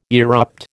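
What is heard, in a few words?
"erupt", American English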